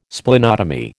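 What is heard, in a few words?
"Splenotomy", American English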